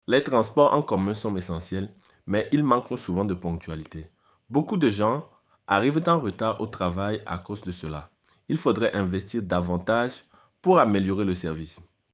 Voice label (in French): Accent africain francophone Transports